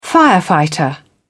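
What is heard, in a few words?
"firefighter", British English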